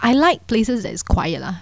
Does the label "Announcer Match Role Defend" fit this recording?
No